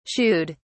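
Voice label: "shooed", British English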